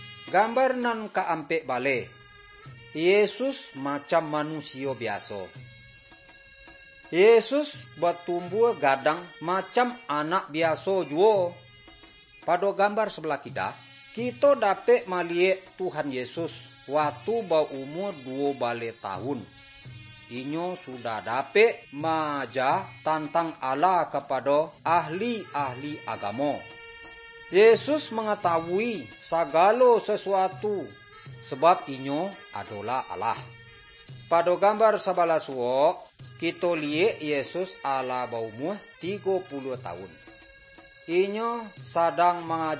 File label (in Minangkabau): good news minangkabau